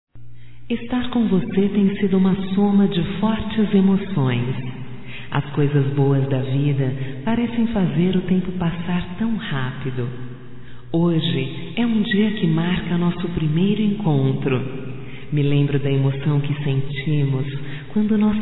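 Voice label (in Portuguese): voz - Aniversário namoro, fem; Telemensagens 2017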